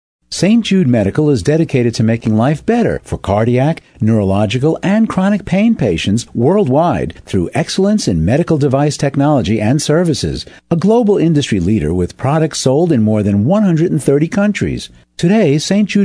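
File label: english american male voice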